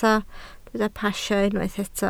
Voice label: "pasio", Welsh